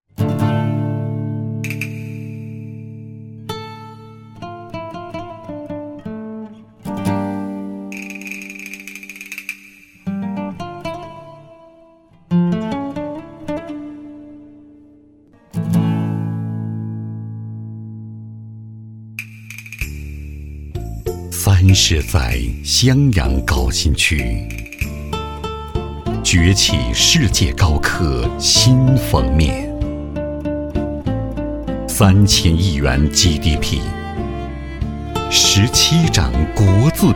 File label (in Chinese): 男 城市地产